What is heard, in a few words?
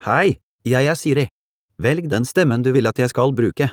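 Norwegian Bokmål, Norway; iOS 26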